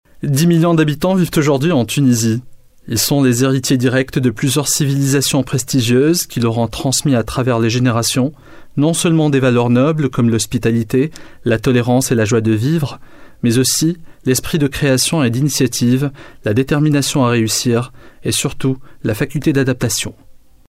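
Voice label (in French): Tunisian French, male, narration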